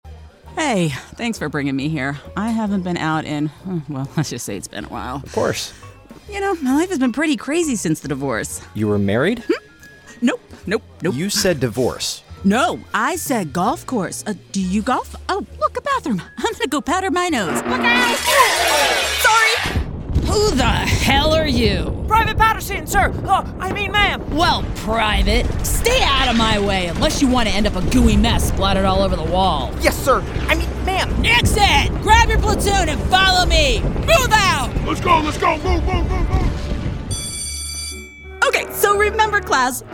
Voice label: english american animation, English (American) voice actor